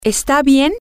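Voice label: it it okay, Spanish, female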